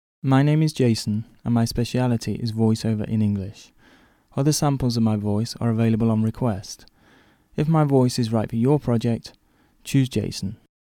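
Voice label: British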